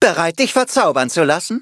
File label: loading, de; cookie run